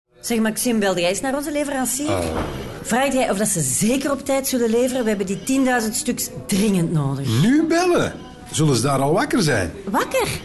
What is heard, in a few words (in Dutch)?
Radiospot